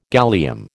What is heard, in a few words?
"gallium", American